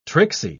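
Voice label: "tricksy", British English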